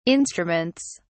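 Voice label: "instruments", British English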